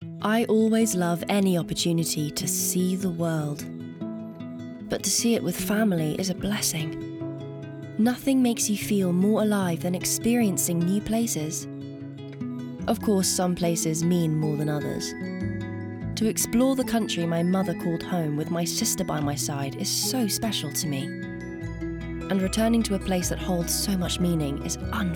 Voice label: Commercial; American Express